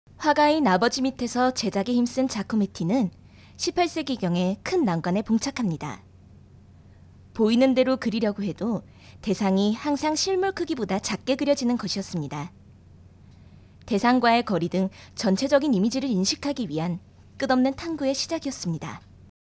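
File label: narration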